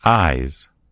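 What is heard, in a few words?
"eyes", American English; gcompris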